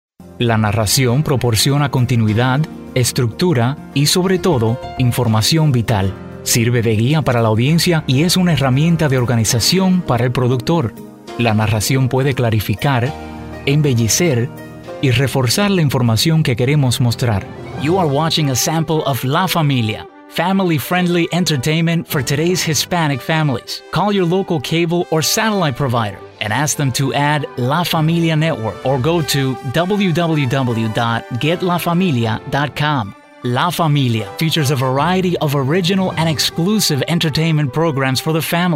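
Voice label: spanish male voice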